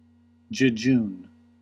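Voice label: "jejune", US English; pronunciations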